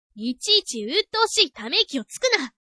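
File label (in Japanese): サンプルボイス, 17歳 厳しい面もあるが面倒見のいい子; 芯の通った人柄、鋭いツッコミ役（？！; 京子; 樹の友人、厳しい面もあるが面倒見のいい子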